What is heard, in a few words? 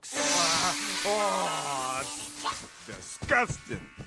ugh disgusting